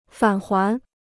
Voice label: "返还", Chinese

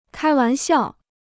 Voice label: "开玩笑", Chinese

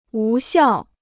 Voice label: "无效", Chinese

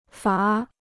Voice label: "罚", Chinese

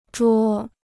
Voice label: "捉", Chinese

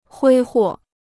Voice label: "挥霍", Chinese

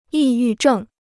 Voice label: "抑郁症", Chinese

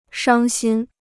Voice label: "伤心", Chinese

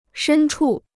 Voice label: "深处", Chinese

